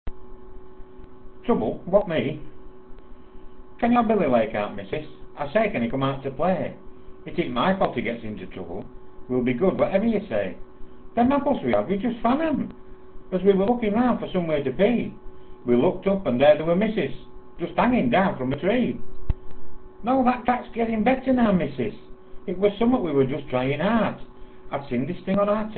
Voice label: trubble, Yorkshire dialect